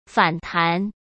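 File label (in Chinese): "反弹", Mandarin Chinese; chinese-flashcards; hsk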